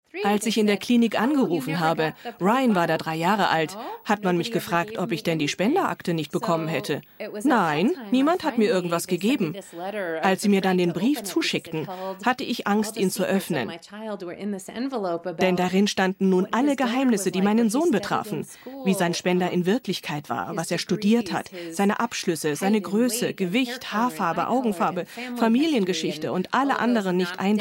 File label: Doku Voice Over